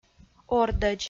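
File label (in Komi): "ордӧдз", Komi, female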